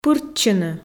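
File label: "пыртчыны", Komi, female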